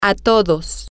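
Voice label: to everyone, spanish, female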